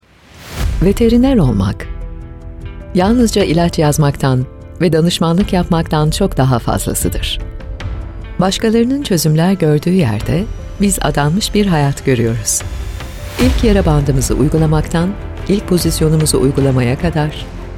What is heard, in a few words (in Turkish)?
tanitim; agroculture dramai